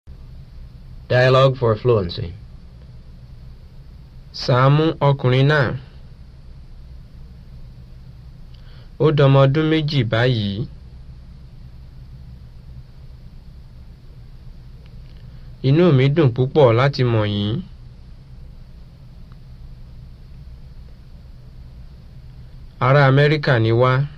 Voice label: Yoruba; FSI; Basic

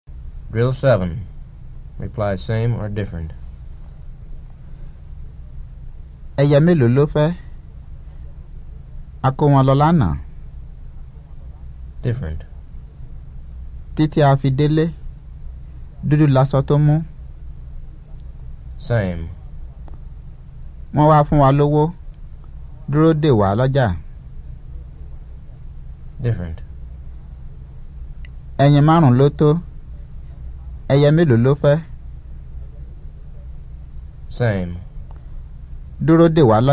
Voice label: Yoruba; FSI; Basic

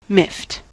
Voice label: "miffed", En-us

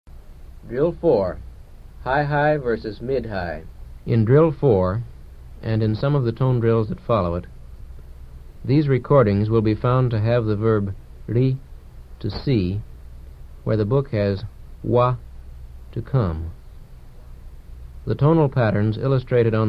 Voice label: Yoruba; FSI; Basic

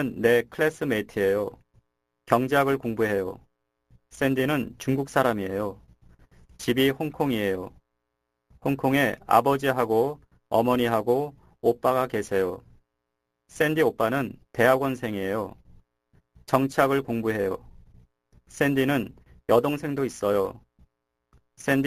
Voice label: Korean Narration; DLI; Lesson 3 - Narration

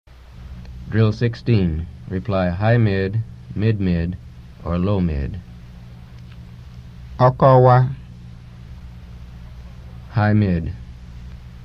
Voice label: Yoruba; FSI; Basic

